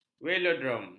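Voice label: "velodrom", Malay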